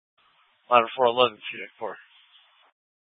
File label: FD Dpts VHF 156.240 Patch